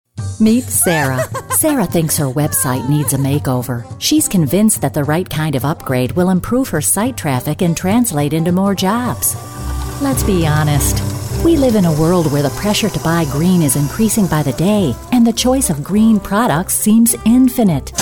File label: VO Video Narrations